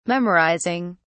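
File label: "memorizing", British English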